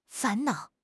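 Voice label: "fan2 nao3", Chinese; 汉语口语新时代-初级下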